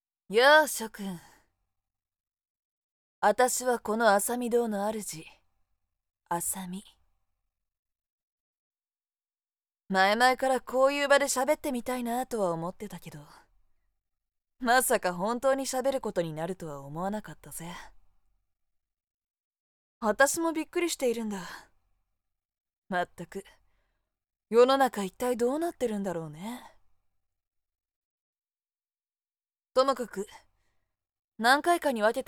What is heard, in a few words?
imagevoice